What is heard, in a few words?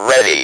speak and spell "READY"; WORDS